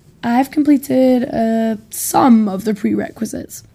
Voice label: interviewee female